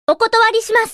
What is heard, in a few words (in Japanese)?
エモーション（否定）「お断りします。」